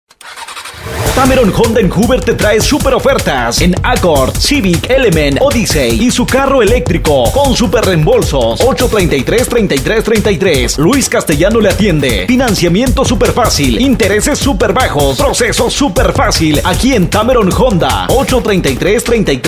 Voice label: locutor mexicano; Locutores de México

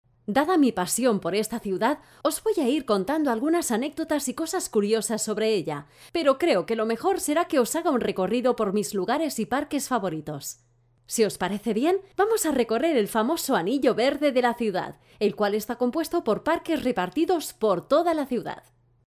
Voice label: locutora spanish voiceover audioguide; locución de audioguía; voice over talent audio guide

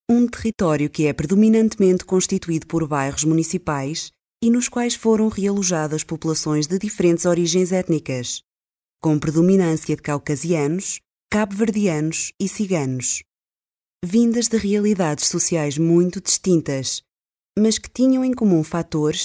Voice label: locutora portuguesa

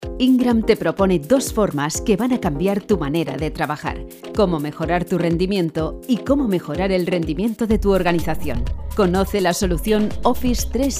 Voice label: centralita mensajes publicidad telefonicos

